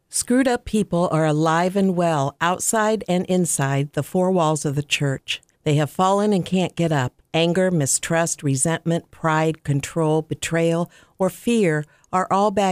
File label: VO raw single